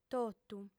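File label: "totu", female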